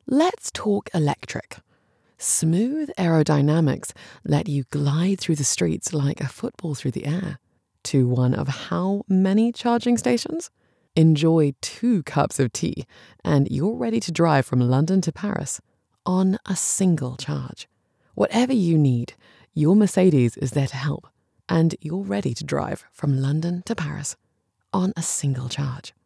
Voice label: english british automotive